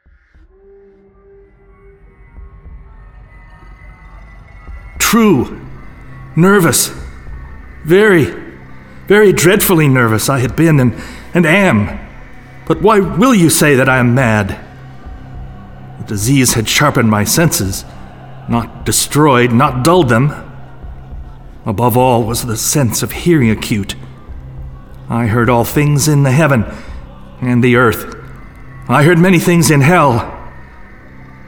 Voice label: Audio Books; Tell Tale Heart